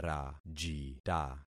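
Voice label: "rajita", concatenated syllables; Affixing experiment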